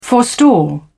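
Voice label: "forestall", British English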